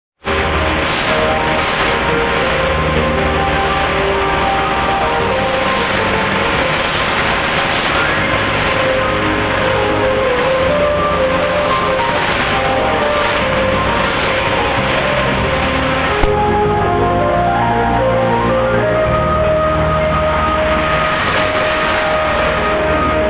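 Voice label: 11760 Radio Havana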